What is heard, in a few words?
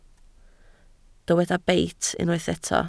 "beit", Welsh